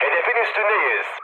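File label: Turkish near waypoint, mood med, speech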